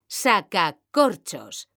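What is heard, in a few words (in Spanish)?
"saca corchos", female; mekanta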